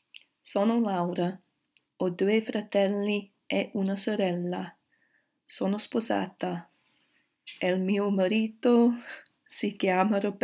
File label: speaking question, italiano